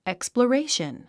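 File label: "exploration", English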